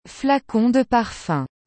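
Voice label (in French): "flacon de parfum", French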